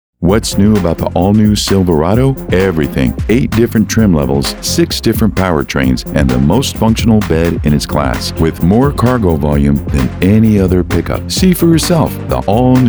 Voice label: VoiceOver

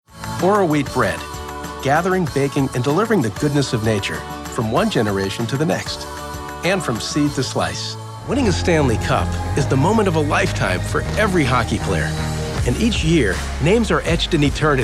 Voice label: Commercial

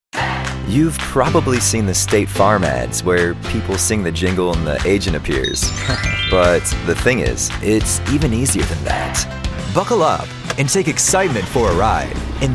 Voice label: TV Radio Commercials American Male

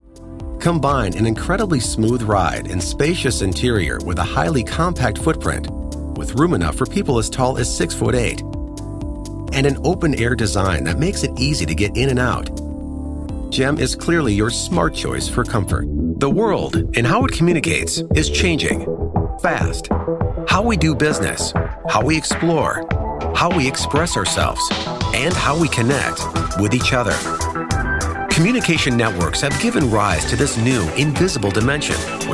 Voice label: Informative

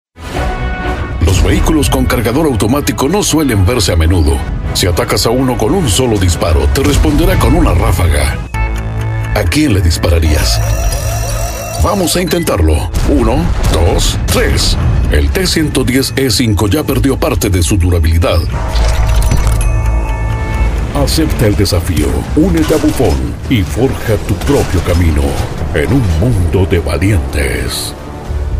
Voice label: Spanish Latin American Neutral PC Gaming

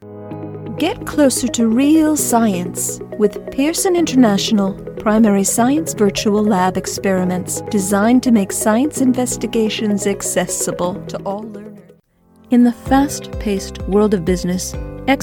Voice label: Professional

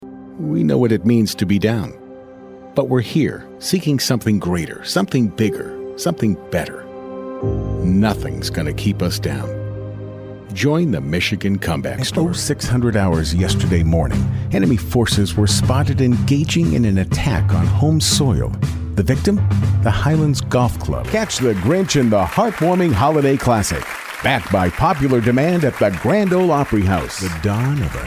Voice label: Commercial Voice

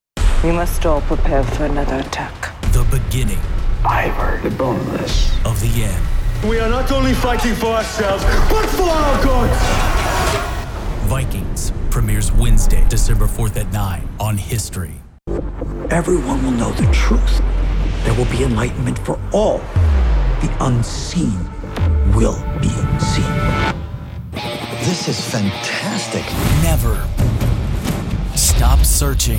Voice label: TV Promo

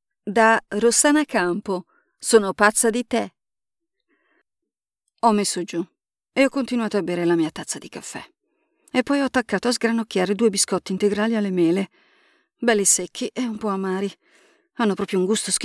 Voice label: audiobook

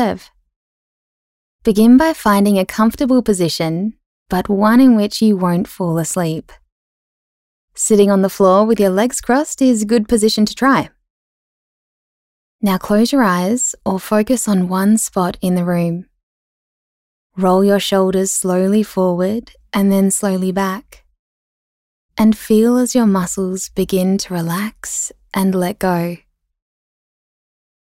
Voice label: Meditation Cold

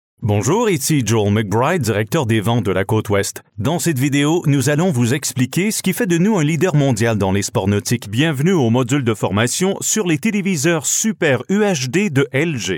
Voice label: FR CA

